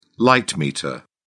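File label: "light meter", English, British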